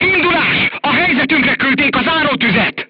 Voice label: voice message art shooting warning, crew tanks hungarian